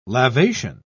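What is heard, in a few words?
"lavation", British English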